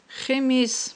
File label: "химис", Aghul